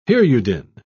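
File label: "hirudin", British English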